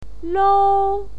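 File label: "lou", pinyin, first tone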